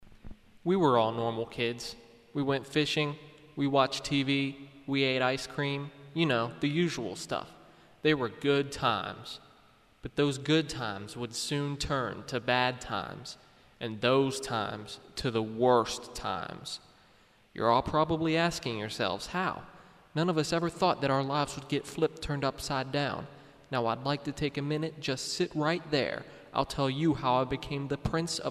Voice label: voiceover